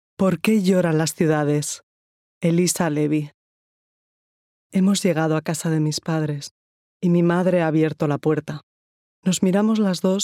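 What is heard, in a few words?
Audiobook ES EU, Spanish (Spain); GoLocalise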